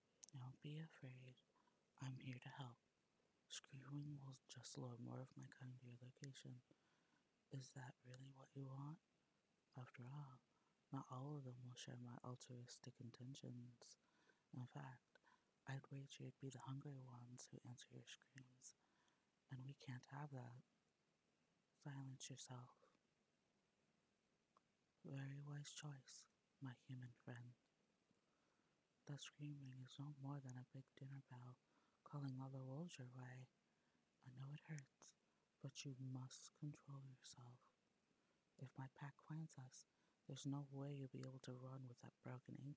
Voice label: [F4M] Wolf Girl Licks [Clean minimum noise version]; A Wolf Girl Licks Your Wounds